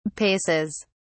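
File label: "paces", British English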